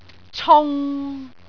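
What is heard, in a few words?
"cung6", Cantonese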